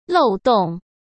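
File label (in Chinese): "漏洞", Mandarin Chinese; hsk; chinese-flashcards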